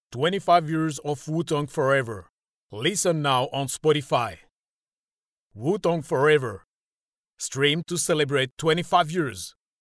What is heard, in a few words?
male french voice over english, france